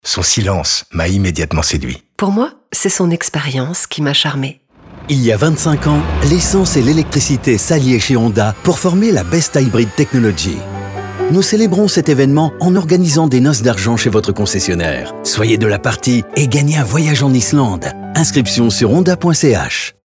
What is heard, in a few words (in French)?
honda hybrid radio; honda hybride 25 ans